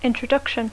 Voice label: "introduction", English

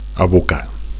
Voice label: "abocar", Catalan; to pour; to empty; to dump

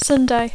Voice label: "Sunday", English

